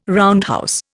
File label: "roundhouse", Indian